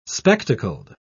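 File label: "spectacled", English, British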